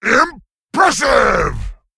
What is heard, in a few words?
Male Satyr Attack